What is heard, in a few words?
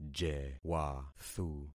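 "jewathu", concatenated syllables; Affixing experiment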